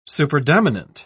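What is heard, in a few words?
"superdominant", English, uk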